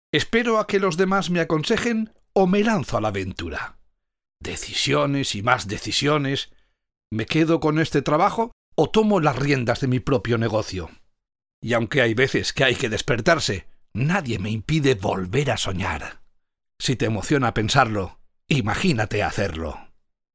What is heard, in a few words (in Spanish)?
narración